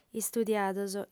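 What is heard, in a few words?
"istudiados", female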